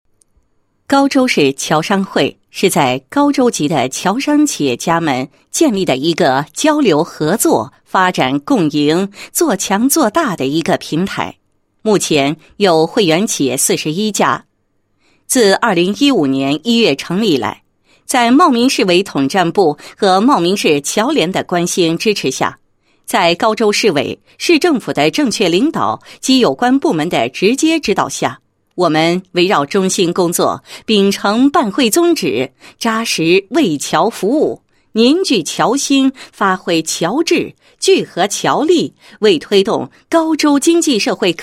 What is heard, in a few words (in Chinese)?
女 解说专题; 高州侨商会